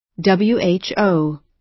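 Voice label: "who", American English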